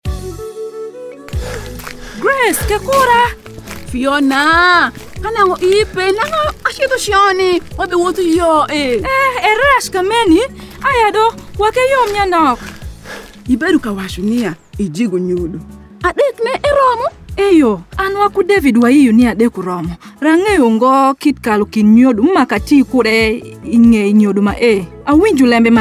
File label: IUD Radio Spot Alur